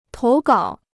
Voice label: "投稿", Chinese

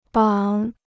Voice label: "绑", Chinese

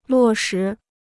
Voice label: "落实", Chinese

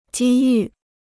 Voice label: "机遇", Chinese

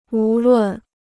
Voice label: "无论", Chinese

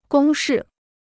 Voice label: "公式", Chinese

